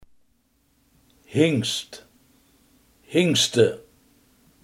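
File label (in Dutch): hengst, Limburgse gesproken woordenboeken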